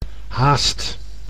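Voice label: "haast", Dutch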